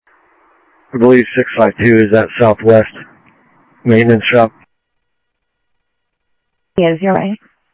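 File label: Sheriff East County, scanner audio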